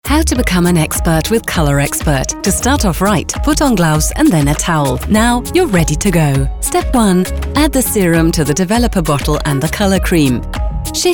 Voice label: EN Werbung; Schwarzkopf